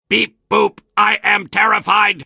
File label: soldier robot see ghost, vo